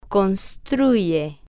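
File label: "construye", spanish, female, slow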